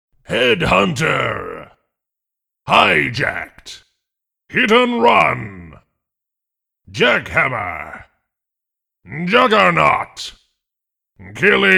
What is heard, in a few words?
Announcer Traditional; UT4